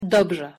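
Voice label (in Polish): "dobrze", Polish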